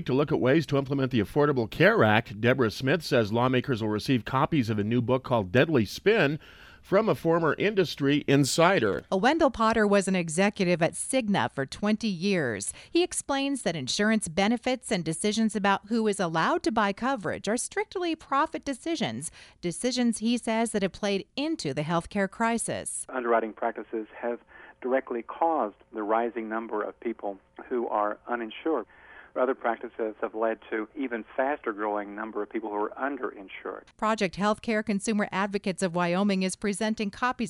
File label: radio news; Wyoming